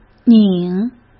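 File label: "拧", Mandarin Chinese; hsk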